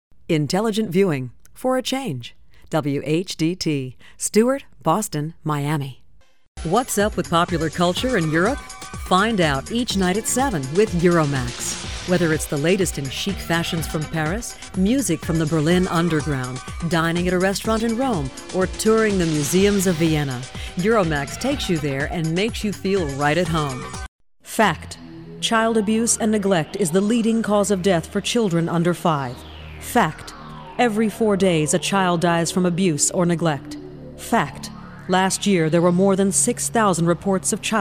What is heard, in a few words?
Promo